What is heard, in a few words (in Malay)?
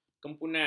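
"kempunan", Malay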